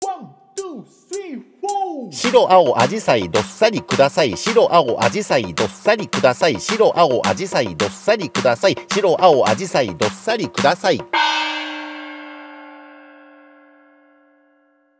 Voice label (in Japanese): 早口言葉リズム（中級編）